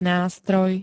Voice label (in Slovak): "nástroj", Slovenské